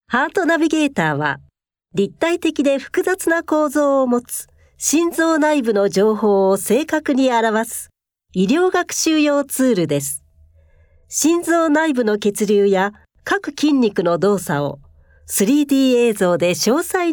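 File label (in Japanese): ナレ, ボイスサンプル; iryou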